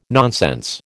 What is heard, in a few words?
"nonsense", American